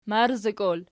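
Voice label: "marzikol" nominative, Archi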